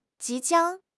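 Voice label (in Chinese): "即将", Chinese; HSK6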